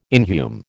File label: "inhume", American